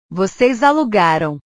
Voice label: "voces alugaram", portugues; Ustedes alquilaron

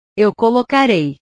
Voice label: "eu colocarei", portugues; portugues/verbos

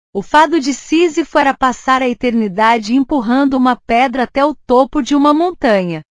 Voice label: "fado", portugues

